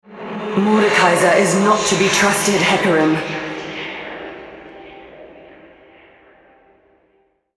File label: altar capture, female, en US; Hecarim